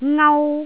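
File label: "ngau1", Cantonese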